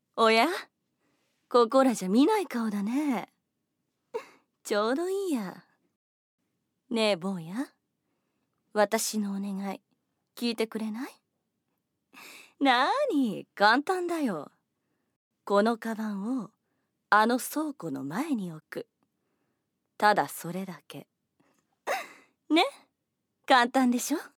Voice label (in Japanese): 女性 台詞